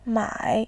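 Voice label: "mai", Mandarin, tone 3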